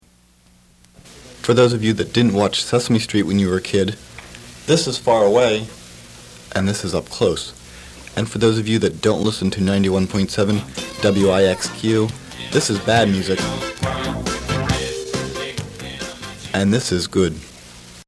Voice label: Station Promo; Sesame Street